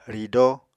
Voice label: "ridóo", Apatani